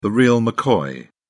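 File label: "real mccoy", British English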